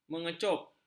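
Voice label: "mengecup", Malay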